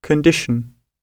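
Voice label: "condition", British English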